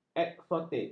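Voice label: "eksotik", Malay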